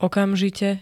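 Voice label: "okamžite", Slovak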